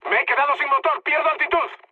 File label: damaged engine, mood high, speech; War Thunder